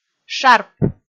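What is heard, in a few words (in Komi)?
"шарп", Komi, female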